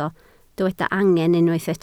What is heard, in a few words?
"angen", Welsh